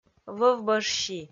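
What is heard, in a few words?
"вӧв бӧж си", Komi, female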